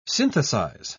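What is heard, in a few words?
"synthesise", British English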